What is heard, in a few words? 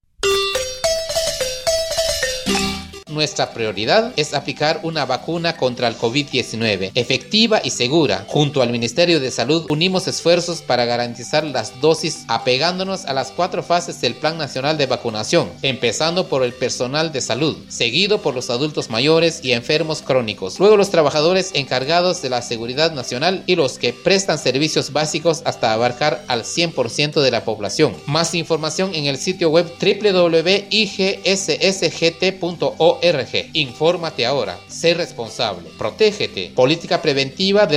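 Español Spot; IGSS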